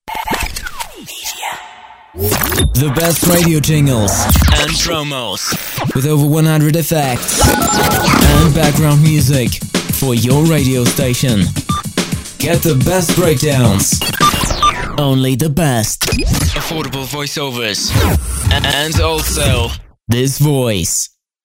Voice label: radio jingle english